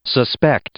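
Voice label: "suspect", English, male; verb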